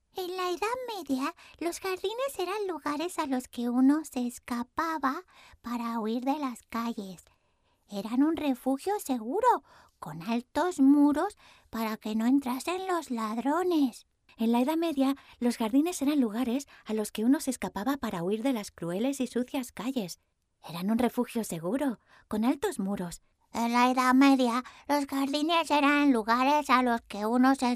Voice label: home studio mix kids grannies